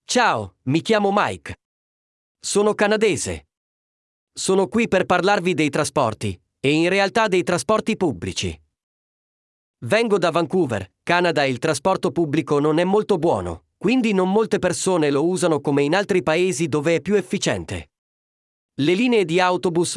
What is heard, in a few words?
Audiobook Voice